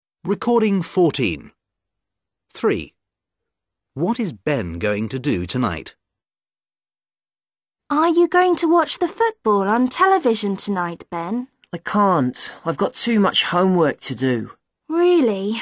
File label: dialog